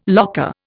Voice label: "locker", Indian